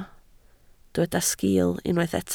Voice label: "sgil", Welsh

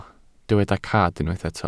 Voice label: "cad", welsh

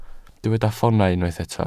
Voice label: "ffoonau", Welsh

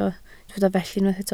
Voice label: "felly", Welsh

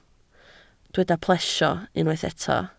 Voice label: "plesio", Welsh

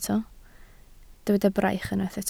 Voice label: "braich", Welsh

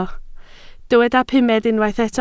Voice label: "pumed", Welsh

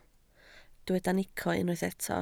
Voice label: "nico", Welsh

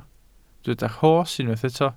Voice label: "rhos", welsh

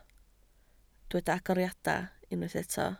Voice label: "agoriadau", Welsh

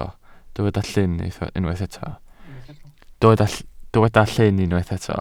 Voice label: "llyn", Welsh

